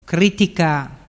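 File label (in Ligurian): "critica", Ligurian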